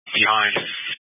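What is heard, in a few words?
Incident Tactical, scanner audio recording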